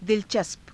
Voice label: "dilacaspa", Hindi